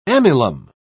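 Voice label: "amylum", British English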